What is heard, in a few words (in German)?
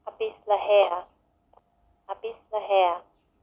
Mundart; tannheimertal